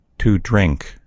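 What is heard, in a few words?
"to drink", American English; gcompris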